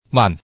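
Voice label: Hakka "vàn"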